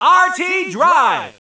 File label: narration; kof